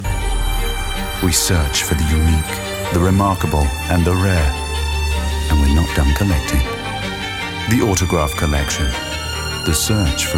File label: languages british